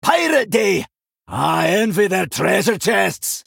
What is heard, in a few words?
male dwarf pirate day